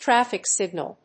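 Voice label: "traffic signal", English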